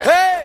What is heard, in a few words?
"hey", voice